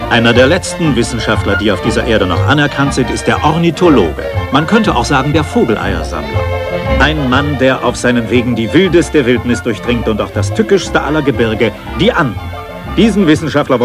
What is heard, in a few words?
condor narrator